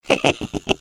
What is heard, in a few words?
Defective Turret defect laugh, es; sp sabotage factory